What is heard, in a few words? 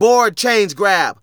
Narration